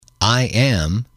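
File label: i am, English, male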